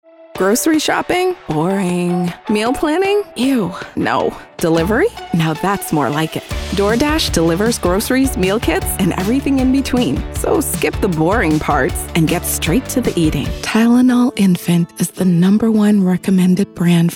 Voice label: Commercial